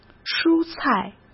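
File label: "蔬菜", Mandarin Chinese; chinese flashcards; hsk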